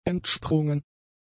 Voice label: "entsprungen", German; adjectives

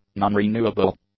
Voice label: "nonrenewable", english; adjectives

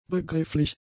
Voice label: "begrifflich", German; adjectives

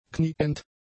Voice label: "knieend", German; adjectives

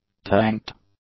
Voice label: "tanked", English; adjectives

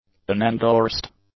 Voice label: "unendorsed", english; adjectives

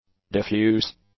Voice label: "diffuse", english; adjectives

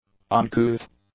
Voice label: "uncouth", English; adjectives

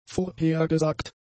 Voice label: "vorhergesagt", German; adjectives

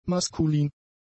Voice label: "maskulin", German; adjectives

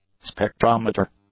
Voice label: "spectrometer", english

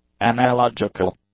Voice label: "analogical", English; adjectives

